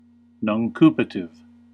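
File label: "nuncupative", US English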